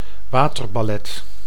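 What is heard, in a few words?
"waterballet", Dutch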